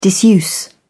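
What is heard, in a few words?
"disuse", British English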